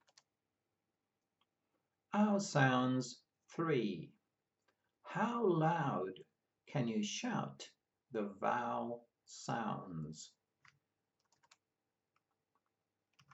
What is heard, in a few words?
Ou sounds, pronunciation of australian english